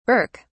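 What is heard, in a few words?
"birk", British English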